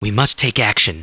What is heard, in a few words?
annoyed; war2; humans; elven archer; We must take action